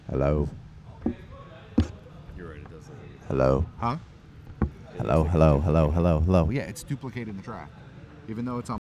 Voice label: Male Voice; NYCC 2013